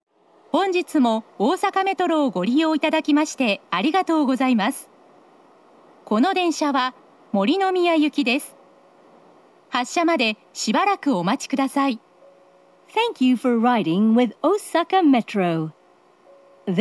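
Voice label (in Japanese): 大阪メトロ中央線, 森ノ宮行き行先案内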